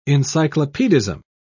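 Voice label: "encyclopedism", British English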